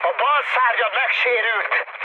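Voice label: Hungarian left wing mood high, speech; War Thunder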